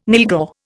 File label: "nylghau", Indian